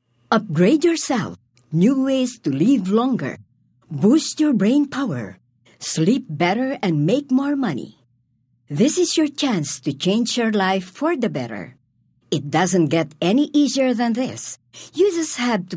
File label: English (Selling)